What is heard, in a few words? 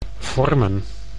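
"vormen", Dutch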